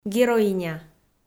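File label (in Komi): "героиня", Komi-Zyrian, female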